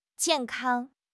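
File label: "jian4 kang1", Mandarin Chinese; HSK3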